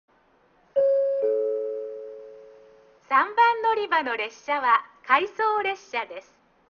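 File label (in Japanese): 3番のりば停車中案内放送（回送列車）, 中津駅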